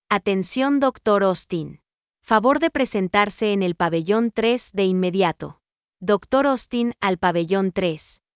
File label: doctor Paging, voice announcement